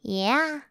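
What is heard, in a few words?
"yeah", English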